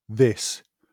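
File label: "this", British English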